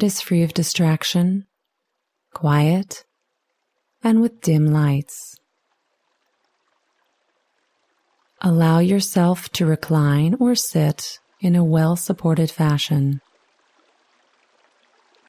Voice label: Relaxation Exercise Autogenic Training